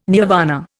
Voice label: "nirvana", Indian

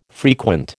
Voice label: "frequent", American

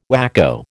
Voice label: "whacko", American

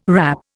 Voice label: "wrap", Indian

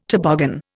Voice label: "toboggan", Indian

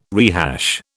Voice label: "rehash", British

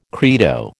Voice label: "credo", American

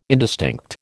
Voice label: "indistinct", American

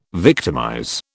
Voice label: "victimize", British

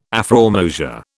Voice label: "afrormosia", British

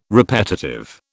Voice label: "repetitive", British

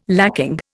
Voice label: "lacking", Indian

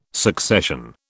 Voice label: "succession", British

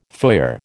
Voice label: "foyer", American

